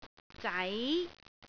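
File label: "zai2", Cantonese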